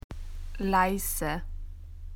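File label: "quiet", Australian English; PictureDictionary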